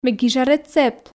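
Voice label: Komi sentences, female; ме гижа рецепт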